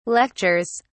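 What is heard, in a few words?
"lectures", British English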